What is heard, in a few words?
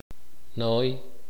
"abuela paterna", K'iche'